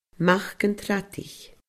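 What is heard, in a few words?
"mac an tsradaich", Scottish Gaelic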